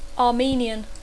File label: "Armenian", English